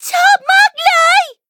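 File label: levelup, Thai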